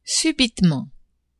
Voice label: "subitement", French; adverbs